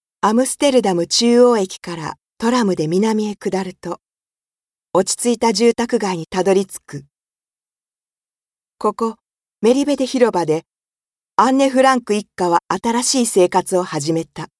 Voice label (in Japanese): 文学散歩, 大阪弁